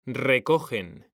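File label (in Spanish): "RECOGEN", Spanish; mekanta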